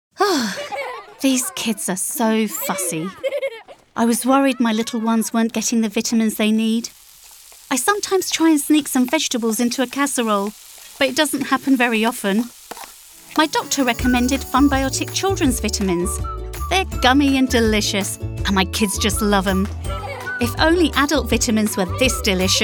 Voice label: Commercial Ad for Kids Vitamins